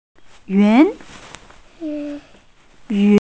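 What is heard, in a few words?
"yun2", Mandarin Chinese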